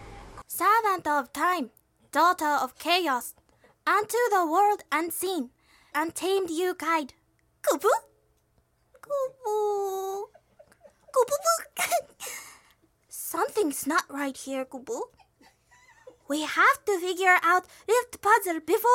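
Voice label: Japanese, Accent English, Animation; GoLocalise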